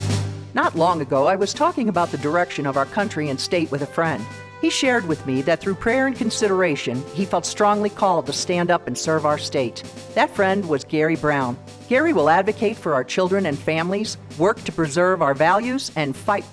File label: Radio Spot